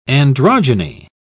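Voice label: "androgyny", English, British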